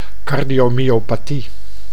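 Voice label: "cardiomyopathie", Dutch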